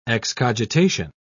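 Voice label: "excogitation", British English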